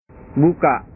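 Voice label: "buka", Indonesian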